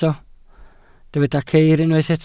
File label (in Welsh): "ceir", Welsh